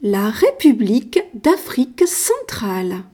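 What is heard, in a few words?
"central african republic", French; gcompris-data; geography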